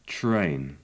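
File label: "train", British English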